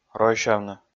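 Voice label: "ройсявны", Komi, male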